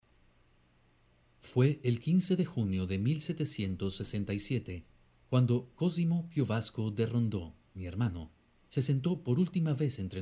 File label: AUDIO BOOK